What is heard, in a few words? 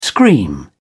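"scream", British English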